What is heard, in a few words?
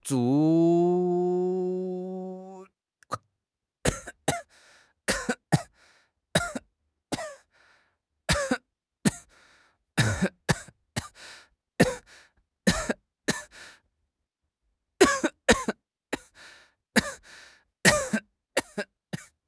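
"zuo4", Mandarin Chinese; HSK1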